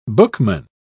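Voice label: "bookman", British English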